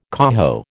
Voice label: "coho", American